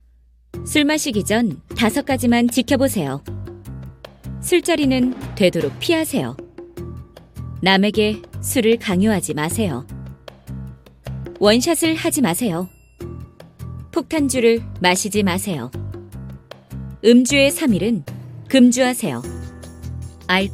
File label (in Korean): 비장한 전문적인 캠페인